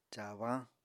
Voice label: "caabañ", Apatani